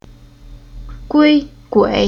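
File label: "Gui", Chinese syllable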